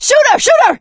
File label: witch chasing; voice; source engine; left 4 dead 2; survivor; producer